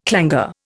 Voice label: "clangour", Indian